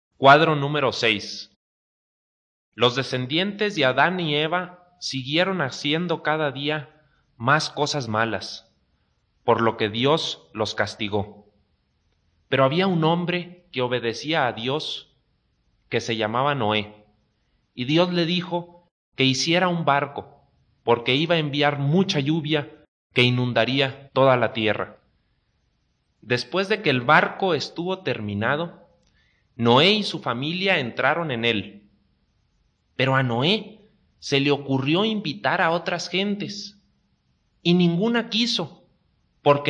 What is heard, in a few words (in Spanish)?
good news spanish mexico